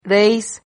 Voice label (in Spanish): "reis", Silabas